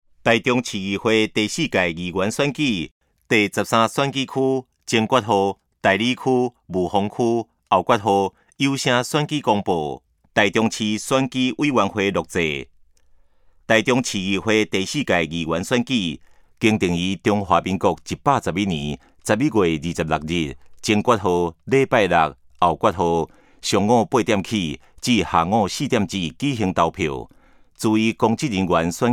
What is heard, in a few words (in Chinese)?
前言, 台語; 臺中市; 有聲公報; 市議員; 第13選區